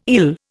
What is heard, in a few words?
"ill", Indian